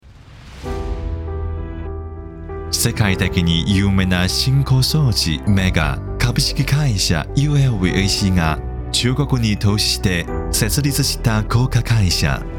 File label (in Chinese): 男 日语 宣传; 爱发科